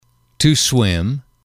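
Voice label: "to swim", English, male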